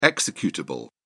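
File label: "executable", British English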